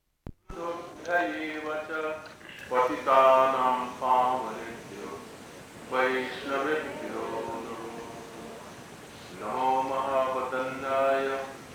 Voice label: Hindi English; India